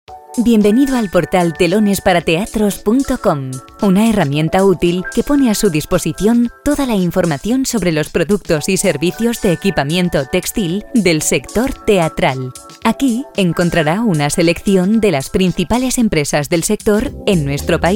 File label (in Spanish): locucion